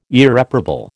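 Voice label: "Irreparable", American English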